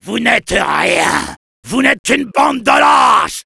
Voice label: Soldier taunts

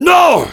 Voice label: Heavy no, fr

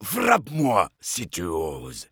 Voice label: Heavy melee dare, fr

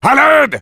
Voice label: Sniper help me, fr

